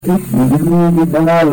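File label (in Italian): "e scrivi", Italian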